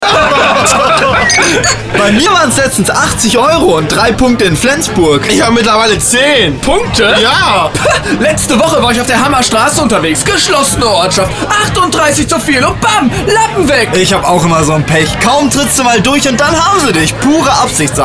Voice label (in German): Radiospot; Abzocke